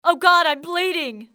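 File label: im bleeding, english female; XBOX; civ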